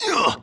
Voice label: Male; baldurs1